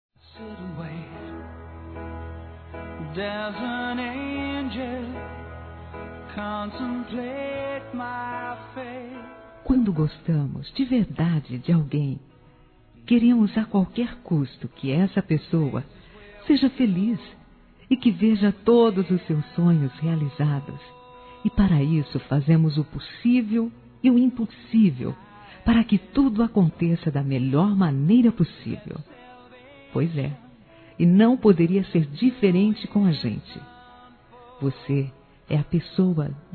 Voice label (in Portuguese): BOAS FERIAS ROMANTICA F; Telemensagens; Despedida Boas Vindas; Telemensagens 2017